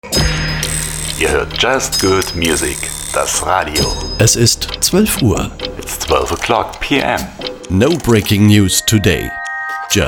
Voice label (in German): Zeitansage 12 Uhr